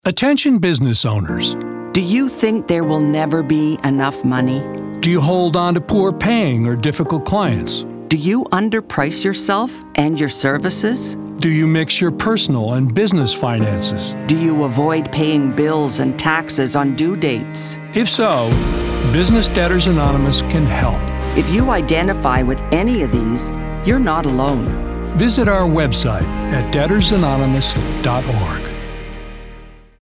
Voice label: Radio Spot; Debtors Anonymous; carrying the message